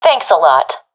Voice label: thankful, professional fem; vo; Counter Strike Model Pack; CS:GO